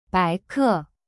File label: "白客", Chinese; bai ke